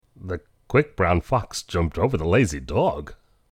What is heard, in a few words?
surprised; emotions; actingup